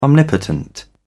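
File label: "omnipotent", English, British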